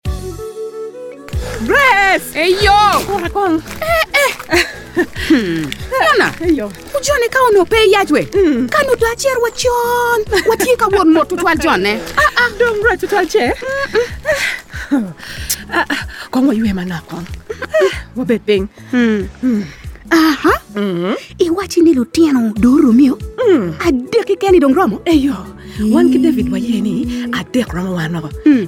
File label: IUD Radio Spot Acholi